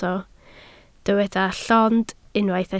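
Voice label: "llond" Welsh, spoken word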